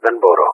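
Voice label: "unable", Greek; Wingman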